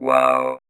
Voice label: Vocoder Words; Vengeance Samples

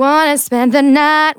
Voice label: Phrase Female; Vengeance Samples; Phrases

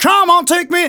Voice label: Phrase Male; Vengeance Samples

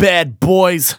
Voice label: Phrase Male Rap; Vengeance Samples

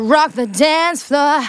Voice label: Phrase Female; Vengeance Samples; Phrases

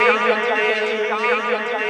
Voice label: male fx vox, vocal house, d; PS-28 Vocal House Samples

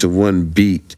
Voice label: LoFi Phrases, LoFi Vocals; Vengeance Samples

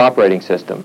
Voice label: LoFi Words, LoFi Vocals

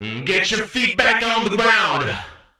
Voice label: male fx vox, vocal house, d#; PS-28 Vocal House Samples

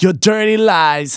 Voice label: phrase male rap; Vengeance Samples

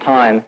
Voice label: LoFi Words, LoFi Vocals

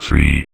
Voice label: Vocoder Words; Vengeance Samples

